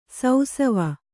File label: "ಸೌಸವ", Kannada